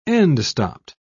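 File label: "end-stopped", British English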